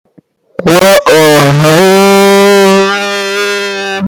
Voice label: what the hail bass booted